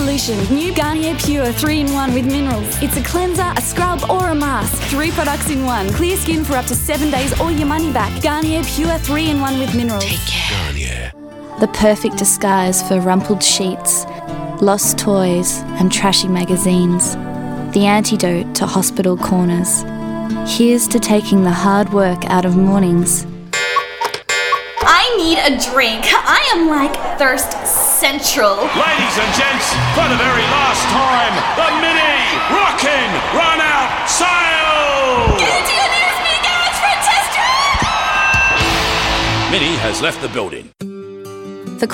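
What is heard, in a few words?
Commercial Australian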